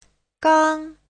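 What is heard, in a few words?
"gāng", Mandarin Chinese; 小刚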